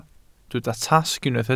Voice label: "tasg", Welsh

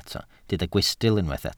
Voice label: "gwystl", Welsh

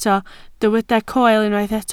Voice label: "coel", Welsh

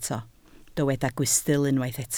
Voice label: "gwystl", Welsh

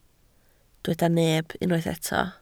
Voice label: "neb", Welsh

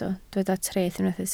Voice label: "treth", Welsh

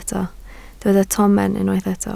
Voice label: "tomen", Welsh

